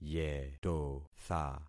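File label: "yetotha", concatenated syllables; Affixing experiment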